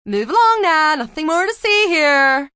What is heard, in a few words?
Move Along Now, Female; Talking; VoiceBox